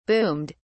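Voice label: "boomed", British English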